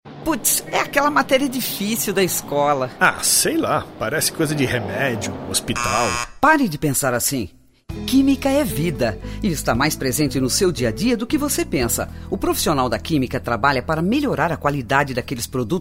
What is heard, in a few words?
spot